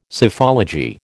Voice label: "Psephology", American